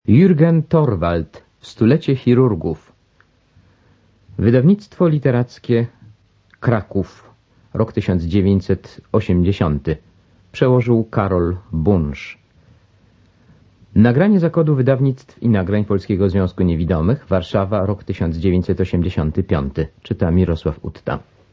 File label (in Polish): Stulecie chirurgów, audiobook; Jürgen Thorwald